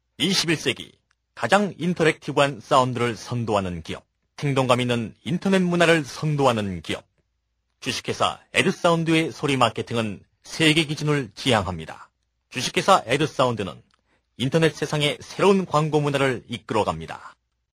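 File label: Korean male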